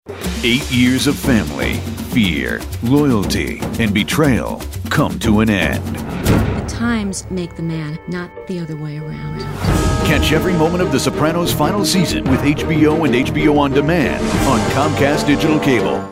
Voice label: TV Promo